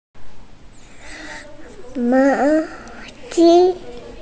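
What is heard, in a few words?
"sweater", hearing impaired; mao2yi1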